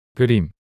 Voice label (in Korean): "그림" 단어 남자